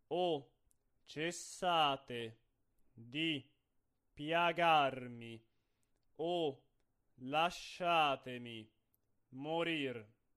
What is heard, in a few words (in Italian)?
monotono